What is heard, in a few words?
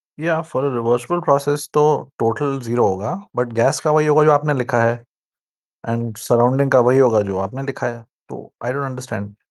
voice message